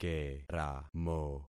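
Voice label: "keramo", concatenated syllables; Affixing experiment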